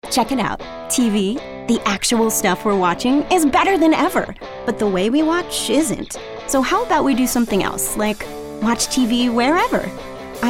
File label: commercial demo